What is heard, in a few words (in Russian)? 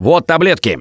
Spot Pills, left4dead2 russian, Survivor Voice; Gambler